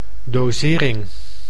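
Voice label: "dosering", Dutch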